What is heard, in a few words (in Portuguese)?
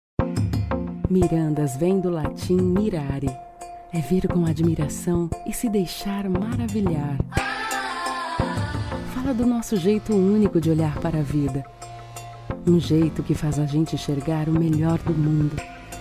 locucao natural